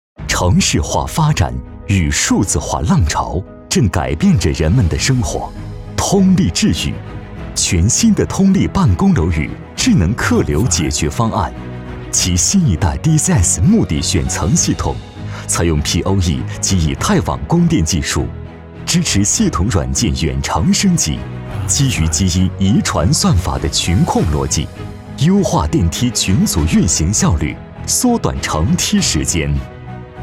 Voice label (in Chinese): 男 企业 科技感; 通力楼宇